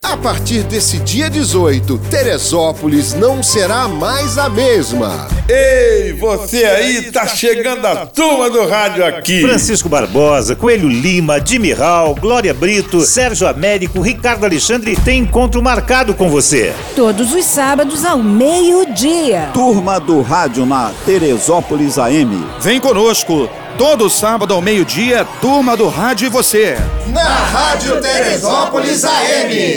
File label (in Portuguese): CHAMADA RADIO AM; RADIO TERESOPOLIS AM